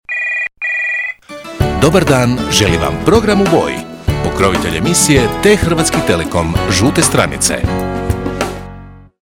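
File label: croatian male commercial; voice demos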